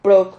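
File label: "prog", Spanish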